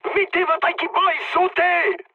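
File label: French bailout mood high; War Thunder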